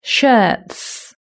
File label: "shirts", British English